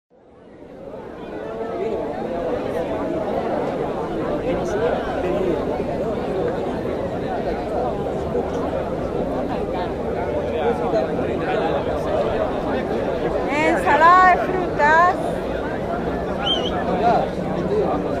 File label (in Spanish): vendedora ensalada de frutas balneario; la florida